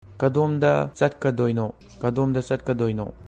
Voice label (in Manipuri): "Kadomda chatkadoino?", Manipuri